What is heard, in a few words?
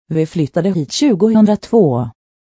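"vi flyttade hit", Swedish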